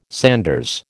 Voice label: "sanders", American